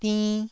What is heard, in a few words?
"dinn1", Taiwanese